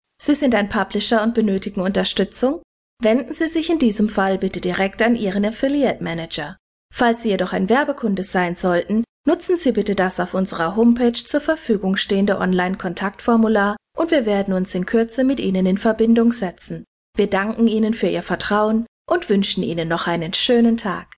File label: German